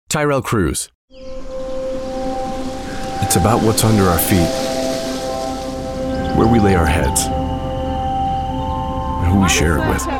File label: Commercia